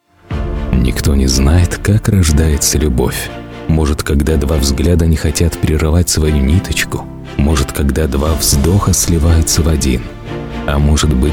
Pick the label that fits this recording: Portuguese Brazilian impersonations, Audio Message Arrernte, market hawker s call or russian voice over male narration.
russian voice over male narration